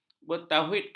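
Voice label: "bertauhidkan", Malay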